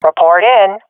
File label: request report, swat fem; Counter Strike Model Pack; CS:GO